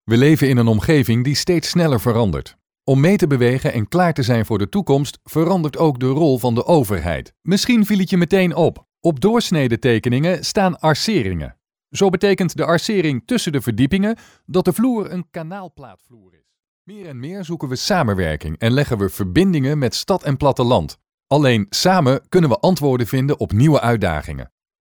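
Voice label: Dutch, Netherlands, male, voice over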